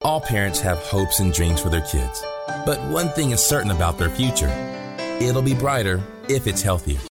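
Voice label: English US, Commercial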